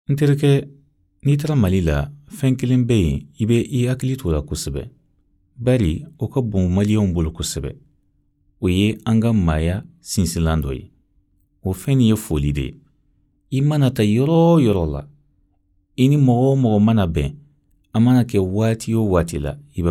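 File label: Bambara, voice over dubbing, male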